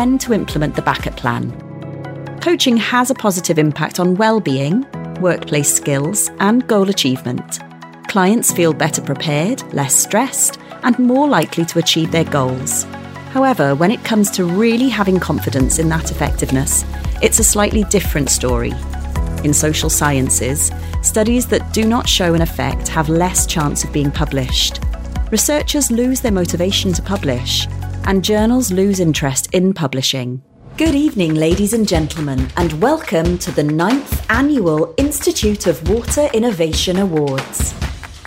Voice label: welsh speaking voiceover english with soft welsh accent